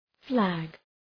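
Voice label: "flag", English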